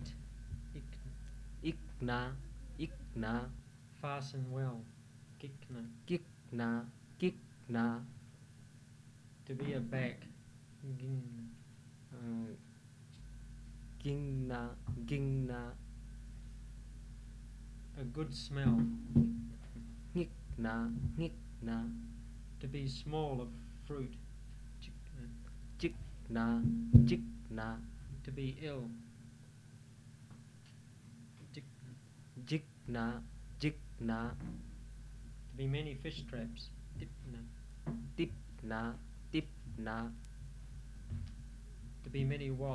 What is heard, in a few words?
Chepang Consonants and Vowels